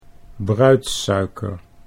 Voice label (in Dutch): "bruidssuiker", Dutch